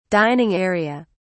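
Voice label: "dining area", British English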